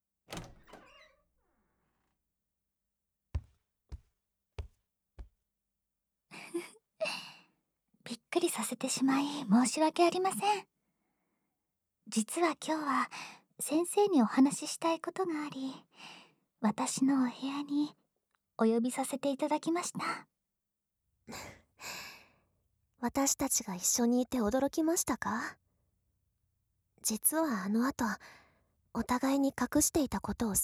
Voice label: 両耳舐め、両囁き; 2人から愛されまくり両耳舐め、両囁き中出しハーレムセックス; SEあり

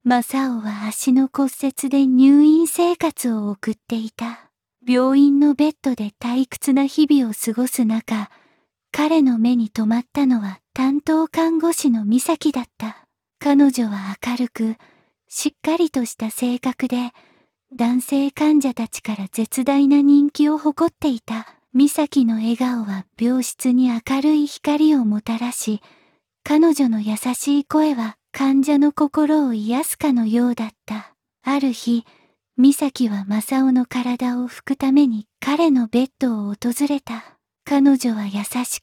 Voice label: 看護師 エロボイス, ASMR

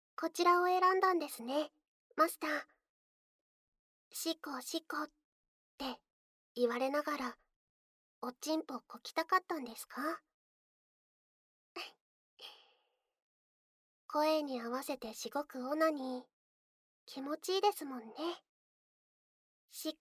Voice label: シコシコボイス; 勃起パート; ウォーミングアップ甘口

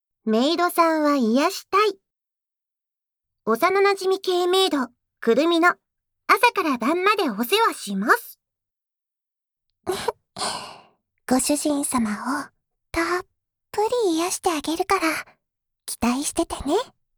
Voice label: タイトルコール, ASMR

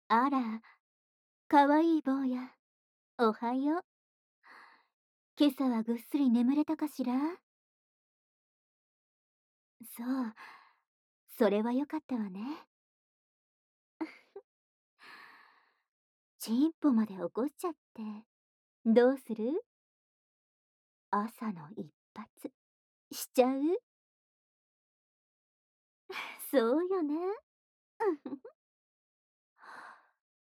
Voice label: おはようボイス(ママ); ショコラママ

